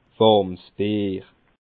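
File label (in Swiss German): "warmsbier", Bas-Rhin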